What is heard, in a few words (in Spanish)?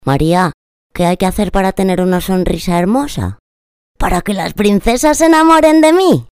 voz ninyo, boy voice recording; Spanish child voice over; Spanish child voice actor